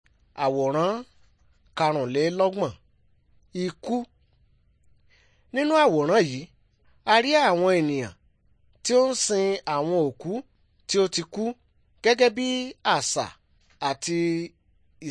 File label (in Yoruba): good news yoruba